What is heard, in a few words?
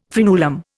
"fraenulum", Indian